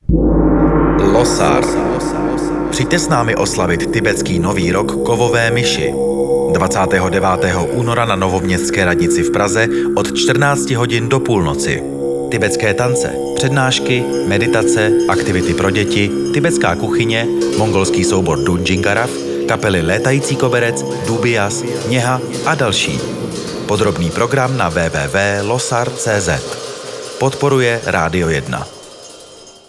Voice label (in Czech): radio spot; losar